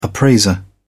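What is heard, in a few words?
"appraiser", British English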